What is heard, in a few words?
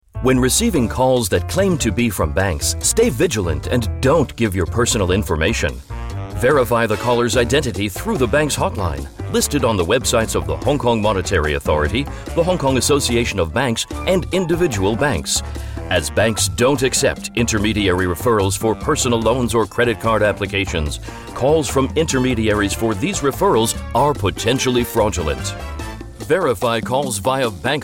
bogus radio eng; bogus phone calls